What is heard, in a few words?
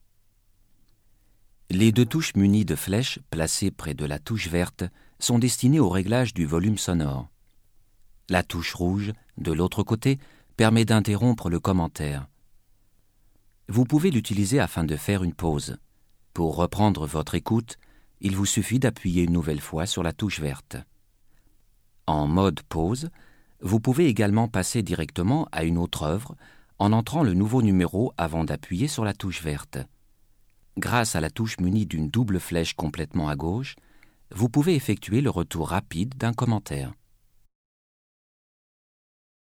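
fr audioguide, French; sprecher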